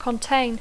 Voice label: "contain", English